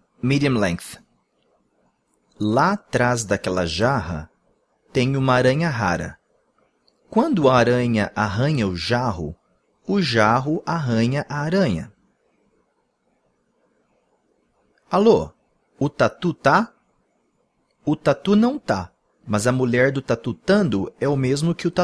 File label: tongue twisters; medium